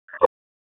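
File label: Raleigh Police North District Tactical, p25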